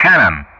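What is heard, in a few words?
"cannon", English; Ka-50; Player Weapon; DCS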